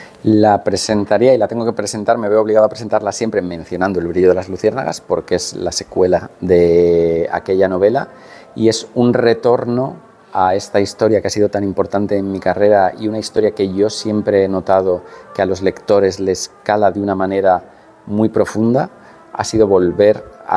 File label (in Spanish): Voz Audio; el brillo de las luciernagas